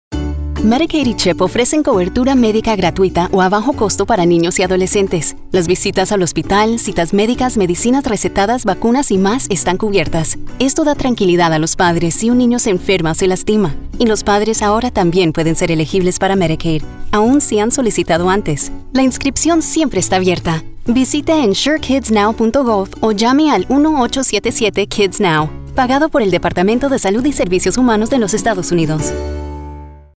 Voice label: radio psa peace of mind spanish